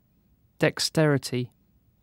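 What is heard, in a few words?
"dexterity", British English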